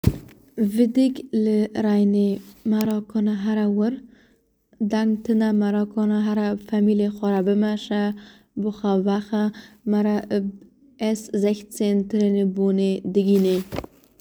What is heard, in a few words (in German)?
kurdisch; Widdig